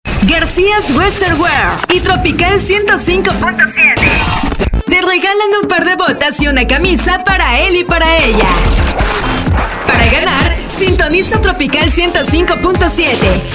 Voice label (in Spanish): spots, voces